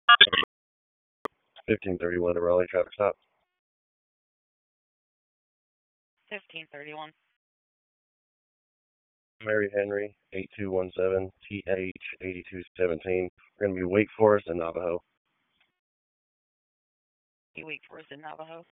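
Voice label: Police Northeast District Dispatch; Raleigh; p25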